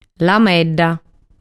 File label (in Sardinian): "Lamedda", sardu